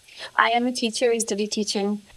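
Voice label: voice acting, es, female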